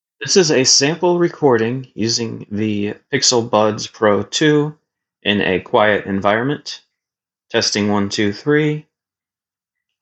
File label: Earbud Samples; comparison of samsung galaxy buds 3 pro and google pixel buds pro 2